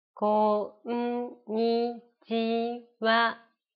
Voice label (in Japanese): ナレーション